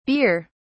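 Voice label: "bere", English, British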